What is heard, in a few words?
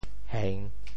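"heng3", Teochew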